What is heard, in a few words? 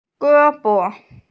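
"ge1bo5", Mandarin Chinese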